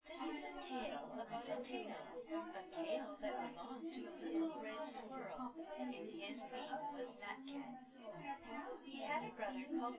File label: female synthconv